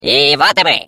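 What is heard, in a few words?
Defective Turret, Russian; turret defect return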